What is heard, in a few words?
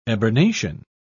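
"eburnation", British English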